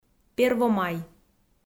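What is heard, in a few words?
"первомай", Komi, female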